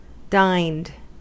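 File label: "dined", US English; pronunciations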